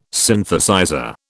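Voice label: "Synthesizer", British English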